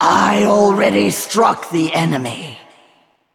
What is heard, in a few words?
Female Val'kyr ERROR I ATTACKED